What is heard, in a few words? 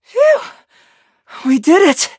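victory, English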